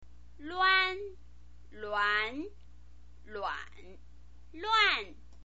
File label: "luan", chinese pinyin